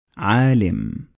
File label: "Alim" Arabic